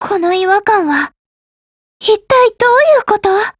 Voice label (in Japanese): RETREAT JP